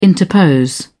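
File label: "interpose", British English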